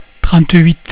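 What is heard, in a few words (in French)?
"trente huit", French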